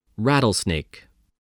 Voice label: "rattlesnake", English